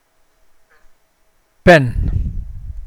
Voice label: coconut stage for drinking, Mokilese

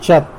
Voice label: "chat", kiss, tlacolula

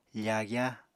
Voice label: "fagya", Apatani

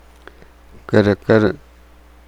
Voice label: "sand", Nukuoro

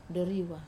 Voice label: "doriwa", towards outside, Wamesa

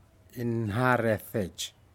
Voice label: fish ribbontail Stingray, Aneityum

